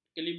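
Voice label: "kelibang", Malay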